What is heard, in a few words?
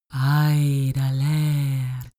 female talk elfish